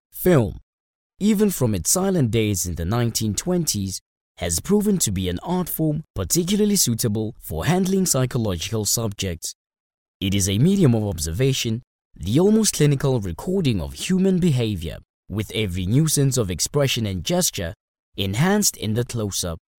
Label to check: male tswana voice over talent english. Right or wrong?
Right